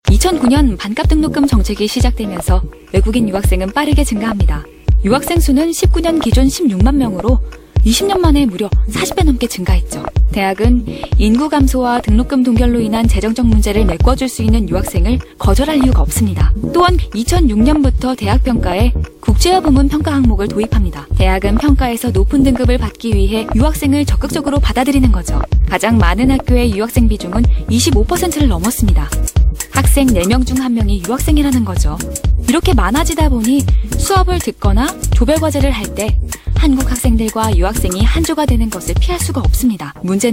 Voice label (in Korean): narration 영상 나레이션 깔끔한 스타일